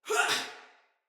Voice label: Block Soldier Female; Battle; Barks; Dialog

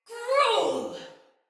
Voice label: Attack Sinecure Soldier Female

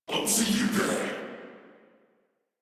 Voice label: Patrol, Battle Barks

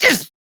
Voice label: Pain; Warframe; Battle; CorpusAristocratManager; Treasurer; en

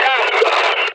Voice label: LasrianEnemyA Pain; Warframe; Battle Barks

